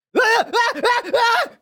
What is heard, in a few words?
Speech Alarms Fire Alarm; World Of Warships